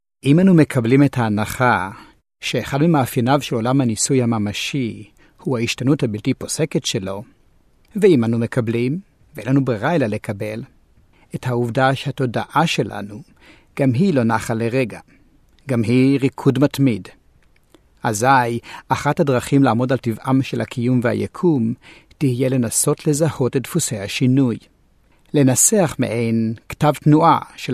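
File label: Hebrew; Hebrew, Middle Eastern, Male, 20s-40s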